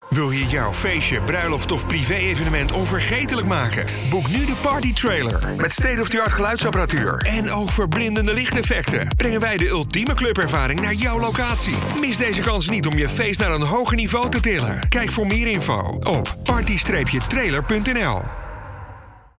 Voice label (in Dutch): party trailer reclame